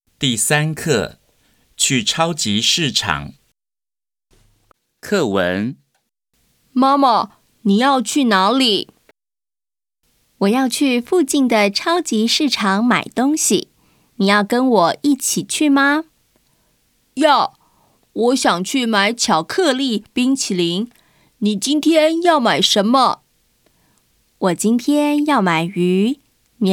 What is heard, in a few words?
Text Chinese